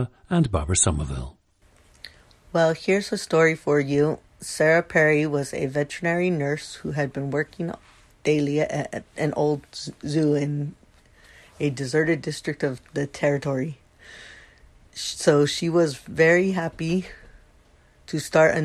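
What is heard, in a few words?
california, International Dialects of English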